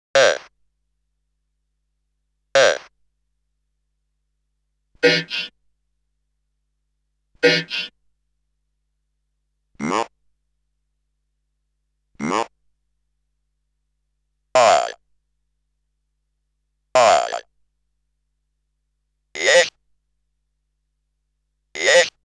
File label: Computer Speech; Bizarre Planet